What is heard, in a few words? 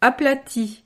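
"aplati", French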